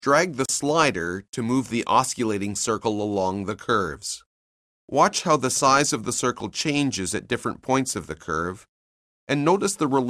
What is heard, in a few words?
narration; CALCULUS 7E; cengage